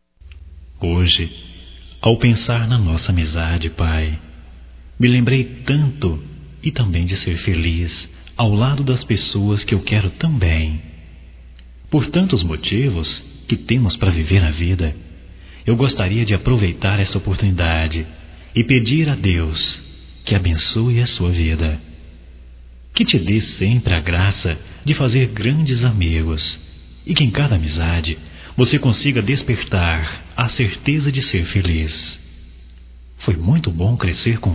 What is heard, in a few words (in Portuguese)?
Homenagem ao Pai Masculino, Só Voz; Telemensagens; Telemensagens 2017